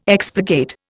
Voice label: "expurgate", Indian